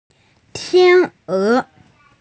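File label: "swan", female; tian1e2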